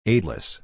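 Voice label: "aidless", English; 援助が無い; éidləs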